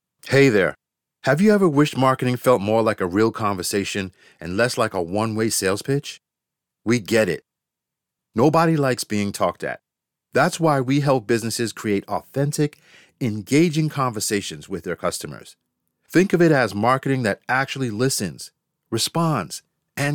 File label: Narrative creative Voice over